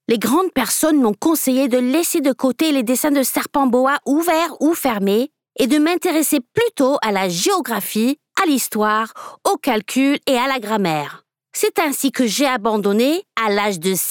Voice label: female english and french french child character narration